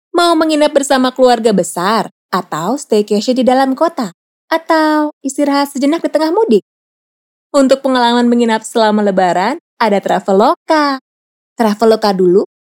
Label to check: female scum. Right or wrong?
Wrong